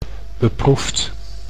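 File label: "beproefd", Dutch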